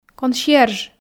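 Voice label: "консьерж", Komi, female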